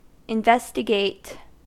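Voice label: "investigate", American English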